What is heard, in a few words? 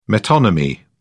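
"metonymy", English, British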